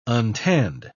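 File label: "untanned", British English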